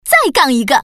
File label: "gang", woman